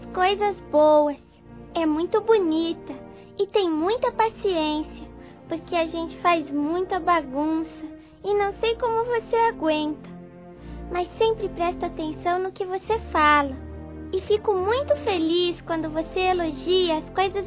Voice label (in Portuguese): ANIV. PROFESSORA, Telemensagens, Infantil, fem